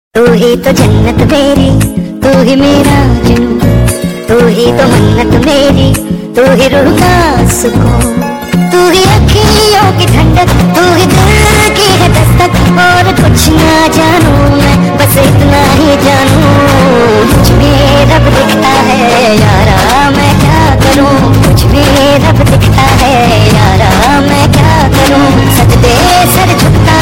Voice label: Hindi Comedy Ringtone